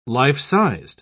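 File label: "life-sized", British English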